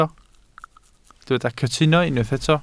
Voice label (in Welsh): "cytuno", Welsh